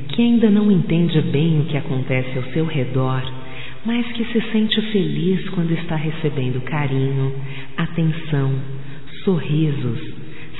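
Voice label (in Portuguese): voz - Convite Para Aniversário de Criança, fem; Telemensagens 2017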